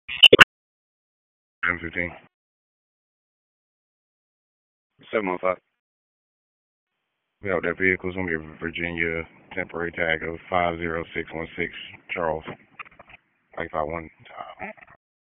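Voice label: Sheriff's Office Dispatch; WSO DISP 1; wake; p25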